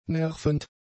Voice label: "nervend", German; adjectives